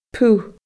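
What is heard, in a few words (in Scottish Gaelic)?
"puth", Scottish Gaelic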